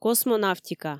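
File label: "космонавтика", Komi, female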